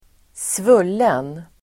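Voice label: "svullen", Swedish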